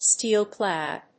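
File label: "steel-clad", English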